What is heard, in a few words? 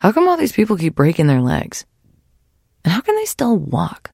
trader jen monolog; 商人杰恩; 七日杀